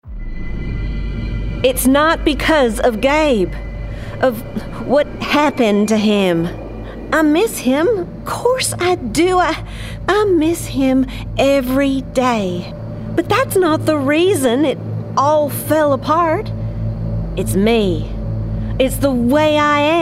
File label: Gaming Emotional Southern US; Emotional Character – Southern American